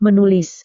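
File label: "menulis", Indonesian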